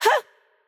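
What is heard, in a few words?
Greek, attack light; el GR; VO; bloom minigame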